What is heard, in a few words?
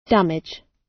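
"damage", British English; Language, linguistics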